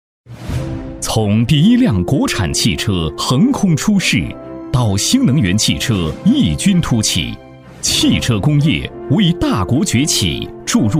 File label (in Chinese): 男 企业宣传 大气有力; 男180-正气明亮; 大气浑厚